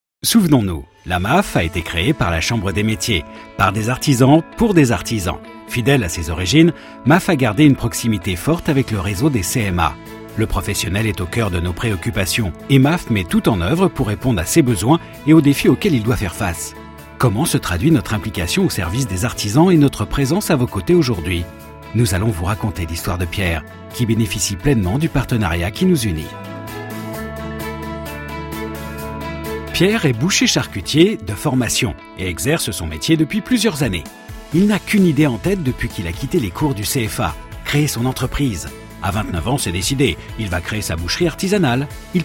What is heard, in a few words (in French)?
Voix Off Motion Design Naturel et dynamique; MAAF